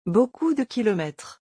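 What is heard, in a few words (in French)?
"beaucoup de kilomètres", French; vocabulaire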